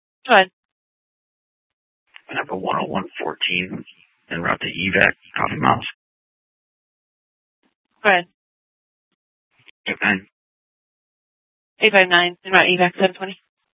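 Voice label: police dispatch, scanner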